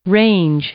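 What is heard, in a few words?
"range", English, female; verb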